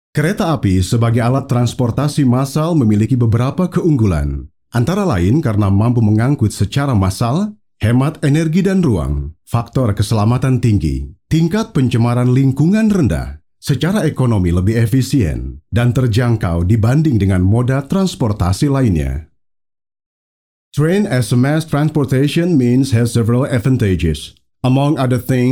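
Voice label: Indonesian, male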